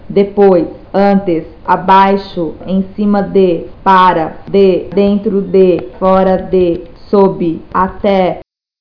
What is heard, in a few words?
portuguese words